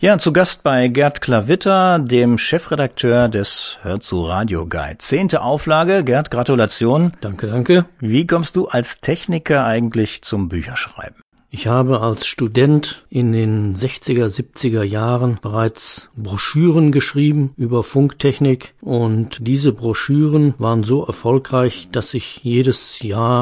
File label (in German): interview; radio guide